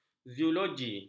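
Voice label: "zoologi", Malay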